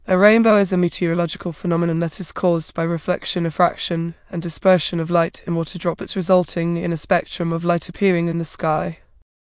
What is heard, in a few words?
speaker, en GB; piper voices; vctk